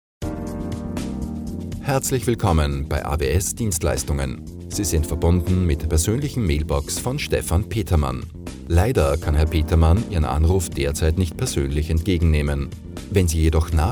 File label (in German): Mailboxen Mitarbeiter